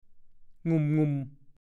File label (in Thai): "หงุ่ม ๆ", ภาษาโคราช